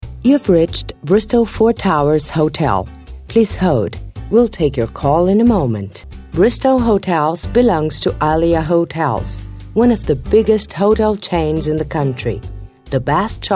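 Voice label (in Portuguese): Inglês, Espera telefônica, Feminino; Inglês - Outros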